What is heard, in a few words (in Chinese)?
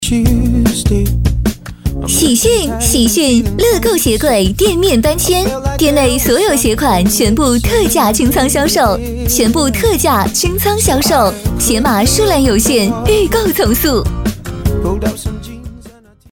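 【促销】鞋柜; 乐购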